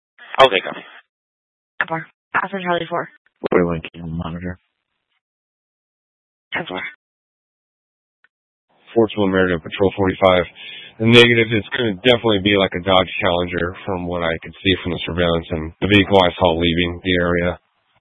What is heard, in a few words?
Police Dispatch; Phoenix Police; A04 400 South Mt Prec; Simulcast C- Chandler